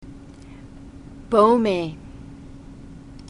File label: "baume", glossary